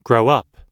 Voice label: "grow up", US English